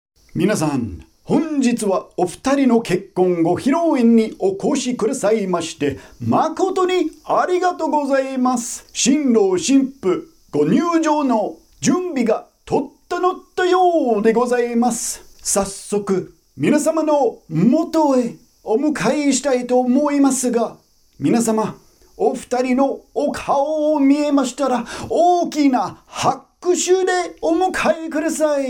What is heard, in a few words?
wedding mc example slightly animated